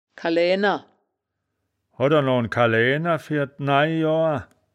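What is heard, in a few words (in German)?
"kalaeaener", wittlicher woerterbuch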